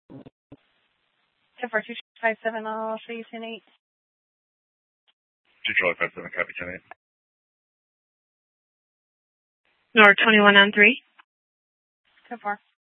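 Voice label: Police Department Dispatch, scanner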